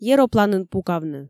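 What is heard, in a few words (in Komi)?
"еропланын пукавны", Komi, female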